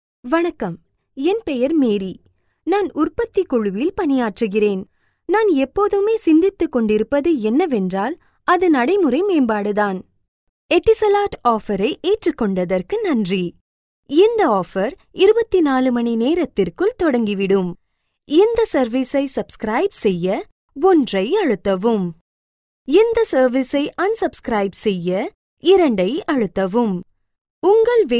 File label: Tamil